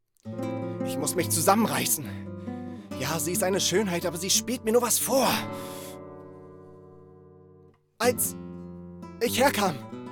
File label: Anime